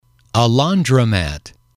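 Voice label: a Laundromat, english, male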